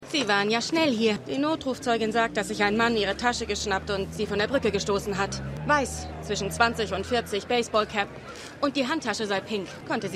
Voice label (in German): Polizistin